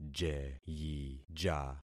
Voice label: "jeyija", concat syllables; Affixing experiment